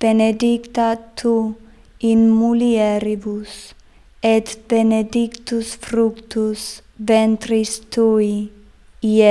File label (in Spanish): ave maria latin lento; ave maria idiomas